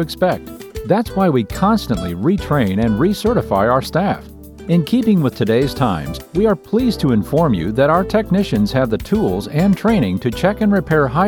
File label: auto care hold messages